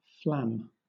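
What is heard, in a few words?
"flam", British English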